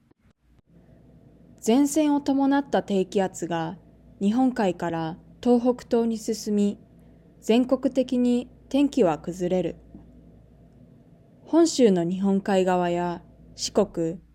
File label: Reading natural; Nakama; OJAD